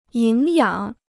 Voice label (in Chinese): "营养", Chinese